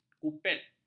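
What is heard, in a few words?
"kuplet", Malay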